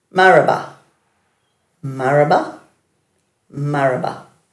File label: "maraba", Dhurga